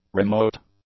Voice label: "remote", English; adjectives

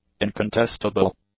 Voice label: "incontestable", English; adjectives

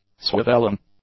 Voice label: "swivelling", English; adjectives

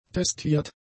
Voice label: "testiert", German; adjectives

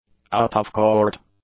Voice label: "out-of-court", English; adjectives

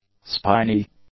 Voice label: "spiny", english; adjectives

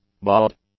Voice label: "baud", English; nouns

